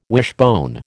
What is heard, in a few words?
"wishbone", American